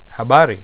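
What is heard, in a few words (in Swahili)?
"habari", Swahili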